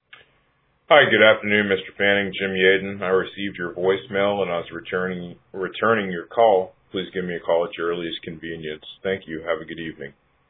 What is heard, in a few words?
voicemail; lennar